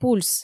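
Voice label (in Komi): "пульс", Komi, female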